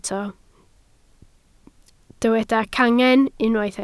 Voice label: "cangen", Welsh

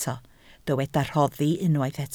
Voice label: "rhoddi", Welsh

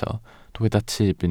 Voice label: "tyb", Welsh

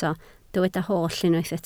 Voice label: "holl", Welsh

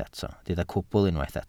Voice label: "cwbl", welsh

